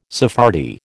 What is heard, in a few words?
"Sephardi", American